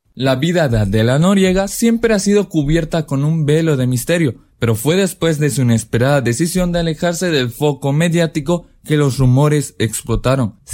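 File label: Spanish male; 西班牙语男; 外语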